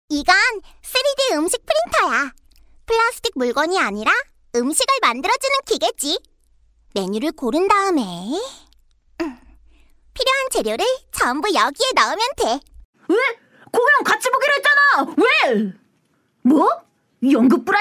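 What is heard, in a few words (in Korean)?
여성 (Female) 광고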